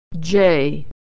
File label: "jay", pronunciation